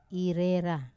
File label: "rera", to untie, wamesa